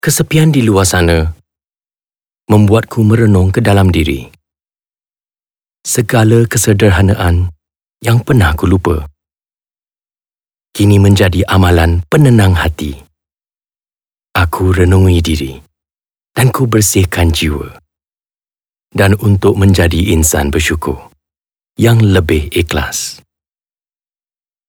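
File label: Bahasa Malaysia Conversational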